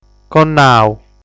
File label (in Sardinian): "Connau", sardu